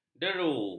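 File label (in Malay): "deru", Malay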